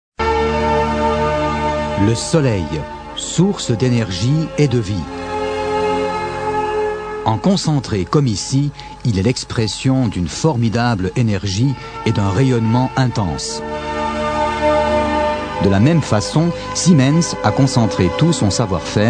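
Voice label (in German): French, Werbung Freundlich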